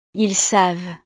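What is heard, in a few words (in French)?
"ils savent", French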